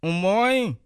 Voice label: hello, bassa; M̀ mɔ́ínǹ